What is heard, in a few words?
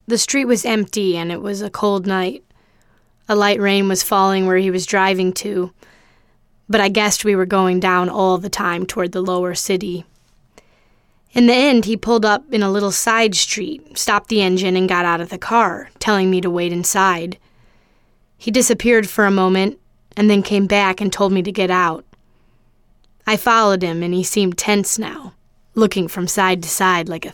Narrative